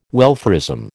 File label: "welfarism", American